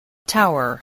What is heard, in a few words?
"tower", voice